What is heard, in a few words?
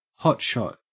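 "hotshot", Australian English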